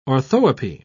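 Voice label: "orthoepy", British English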